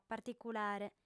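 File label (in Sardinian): "particulare", Sardinian, female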